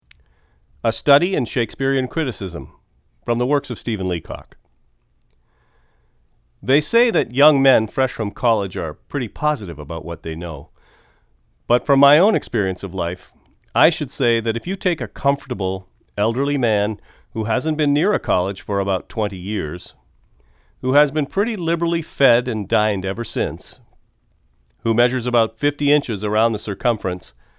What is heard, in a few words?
FAR m251 Proximity Male anno